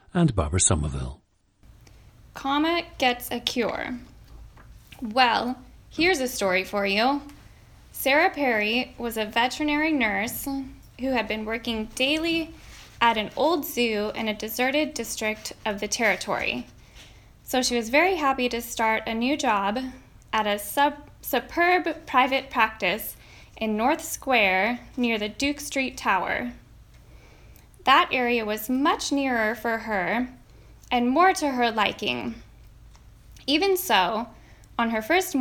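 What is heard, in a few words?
oregon, International Dialects of English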